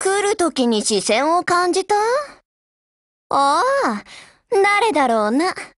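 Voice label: HELLO Japanese, Voice; GF Alarm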